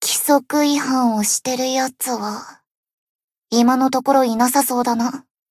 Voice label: Cafe Monolog; BA; Iori Swimsuit